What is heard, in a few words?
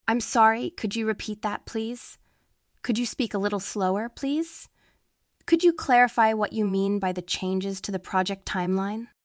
Telephone talk